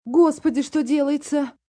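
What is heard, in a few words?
Oh My God Russian, Reaction, negative, female, Russian (oh, my God), (human voice speaking); Exclamations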